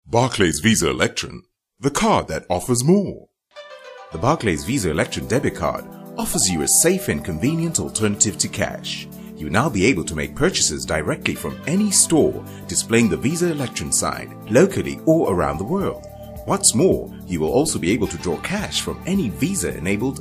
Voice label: South African Accent, Voice Overs; Male; foreign accent voiceovers

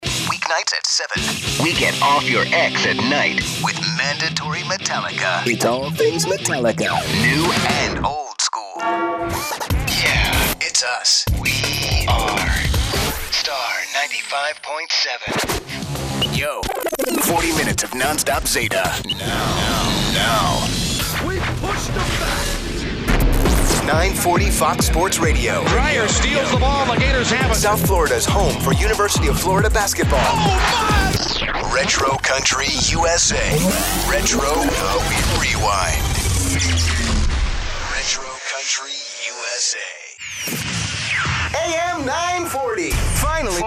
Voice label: imaging, male voice talent

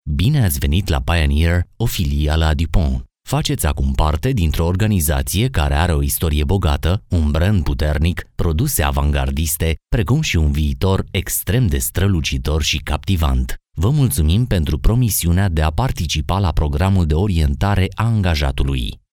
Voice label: Romanian; foreign languages

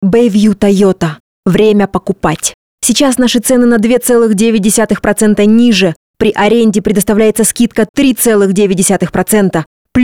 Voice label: Russian, voiceovers other languages kids teens; Female / Voice; foreign languages

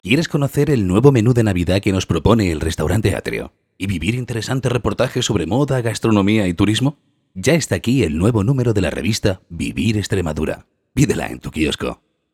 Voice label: Castilian; foreign languages

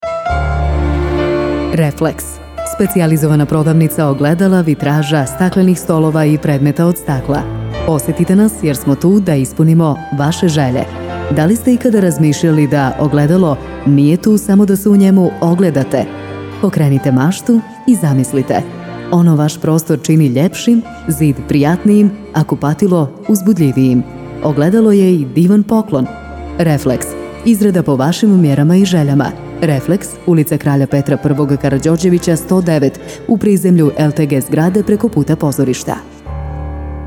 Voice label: Bosnian, Bosnian voice overs

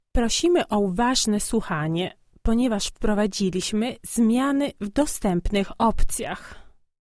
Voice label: Polish; foreign languages

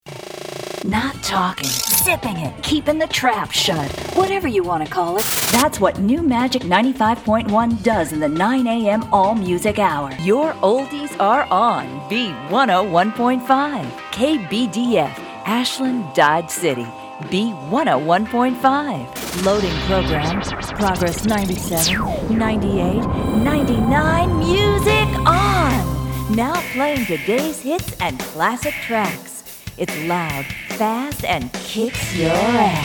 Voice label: imaging, female voice talent

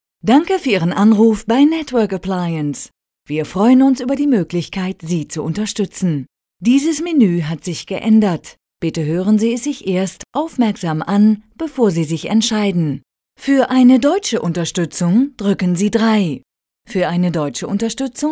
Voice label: German

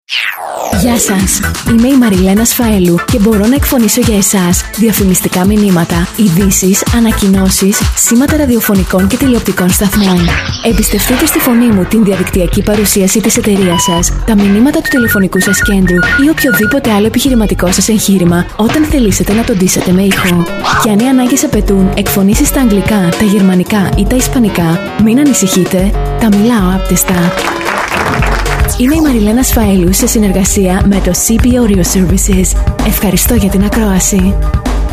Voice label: greek

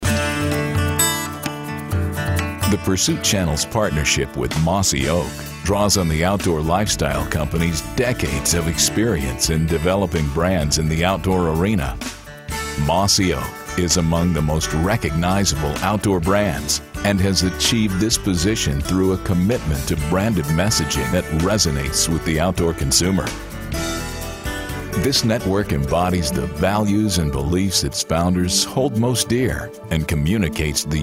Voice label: narr, male voice talent

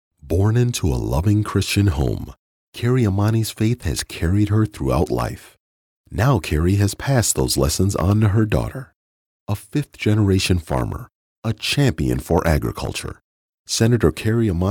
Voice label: Political, male voice talent; commercial voiceovers

